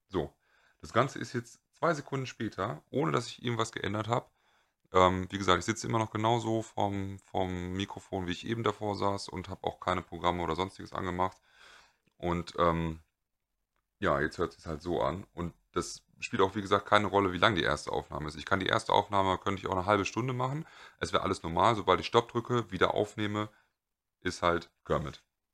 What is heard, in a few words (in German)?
aufnahme, stimme beim aufnehmen zu tief; kermit